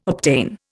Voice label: "obtain", Indian

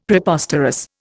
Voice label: "Preposterous", Indian; Dictionary

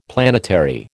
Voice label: "planetary", American

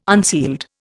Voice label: "unsealed", Indian

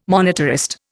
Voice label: "monetarist", Indian

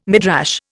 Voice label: "Midrash", Indian

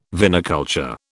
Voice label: "viniculture", British

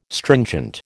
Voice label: "stringent", American

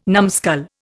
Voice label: "numbskull", Indian

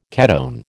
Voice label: "ketone", American

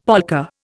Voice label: "polka", Indian; Dictionary

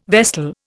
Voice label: "vestal", Indian

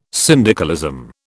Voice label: "syndicalism", British

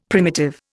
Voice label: "primitive", Indian; Dictionary

